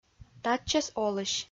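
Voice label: "татчӧс олысь", Komi, female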